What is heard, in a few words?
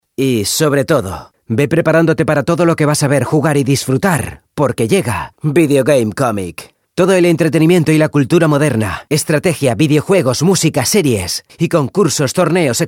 Spanish Castilian video games